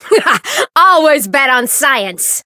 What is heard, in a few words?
variable chant; ashuthia